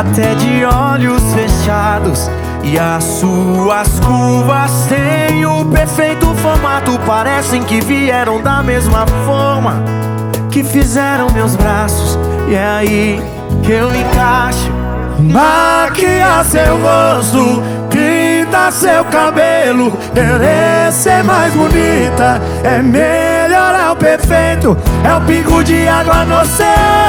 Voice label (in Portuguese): locutor a voz magnifica